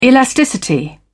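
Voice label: "elasticity", British English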